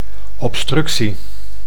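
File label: "obstructie", Dutch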